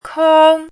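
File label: "kōng", Mandarin Chinese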